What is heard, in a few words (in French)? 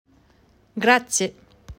Merci italien